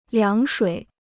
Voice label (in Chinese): "凉水", Chinese